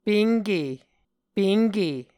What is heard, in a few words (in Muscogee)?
"penke", Muscogee